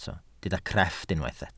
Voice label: "crefft", welsh